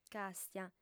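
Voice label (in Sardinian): "càstia", female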